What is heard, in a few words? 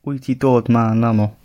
do you come here often, gwentian